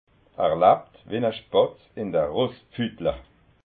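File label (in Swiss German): "rosspfutla", Alsatian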